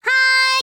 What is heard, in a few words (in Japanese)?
"hai" C6; 千恋万花; 茉子